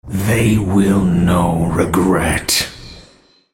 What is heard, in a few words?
attack, en US; Varus